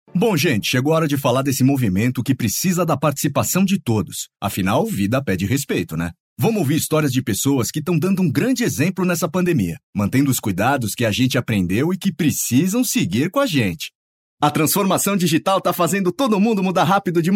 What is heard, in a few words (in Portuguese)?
coloquial